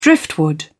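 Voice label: "driftwood", British English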